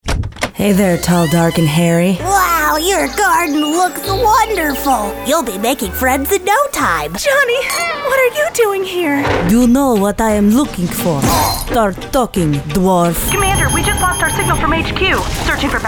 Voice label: Character